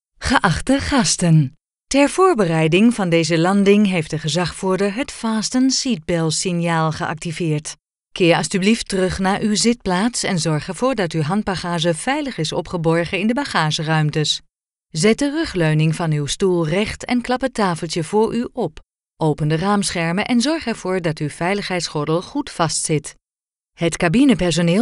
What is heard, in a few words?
Dutch; Israir